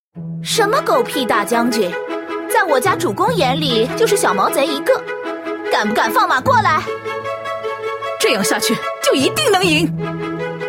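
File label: female young girl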